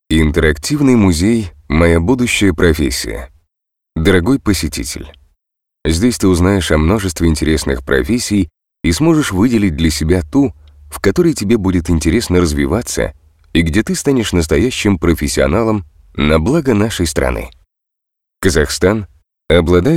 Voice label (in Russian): аудиогайд для музея; демо; ПОкрышкин